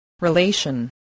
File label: "relation", spelling voice